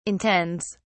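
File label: "intends", British English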